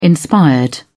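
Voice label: "inspired", British English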